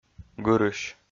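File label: "гырысь", Komi, male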